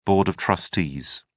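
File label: "board of trustees", British English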